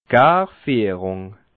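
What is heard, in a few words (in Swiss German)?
"garfiehrung", Alsatian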